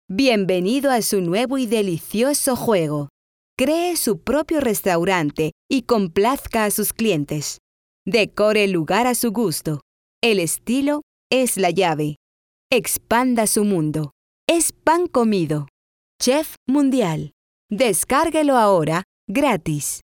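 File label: Spanish Spain female upbeat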